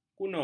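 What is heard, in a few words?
"kuno", Malay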